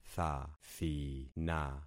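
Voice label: "thathina", concatenated syllables; Affixing experiment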